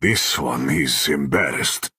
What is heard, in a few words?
Khajiit male oops